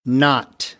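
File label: "not", American English; AmericanBritish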